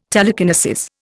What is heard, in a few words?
"telekinesis", Indian